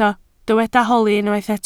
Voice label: "holi", Welsh